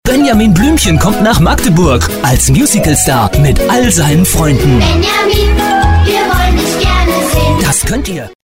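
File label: German, male, fast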